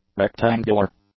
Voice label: "rectangular", English; adjectives